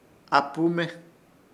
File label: "AHPUME", Ishir Chamacoco; pez pico de pato